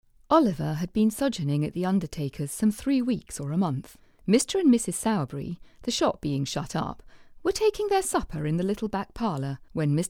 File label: Audiobook character